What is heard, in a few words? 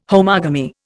"homogamy", Indian